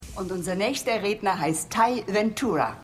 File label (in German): Lehrerin; Tys Lehrerin; Manhattan Love Story